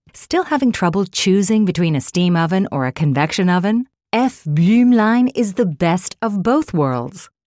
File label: Approachable Confident